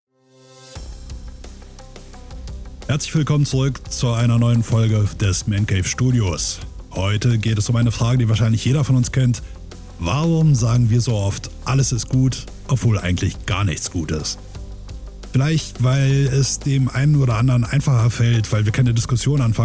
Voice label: Podcast mixdown; Sprecherprofil